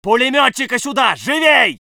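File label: voice soviet infantry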